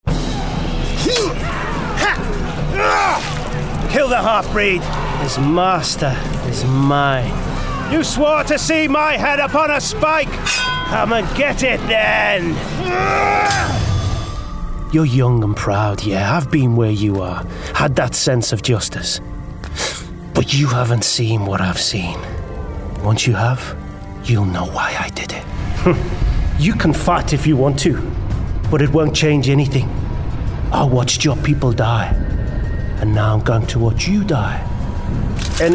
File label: Gaming Character